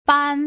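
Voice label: "ban", Mandarin Chinese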